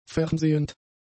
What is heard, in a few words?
"fernsehend", german; adjectives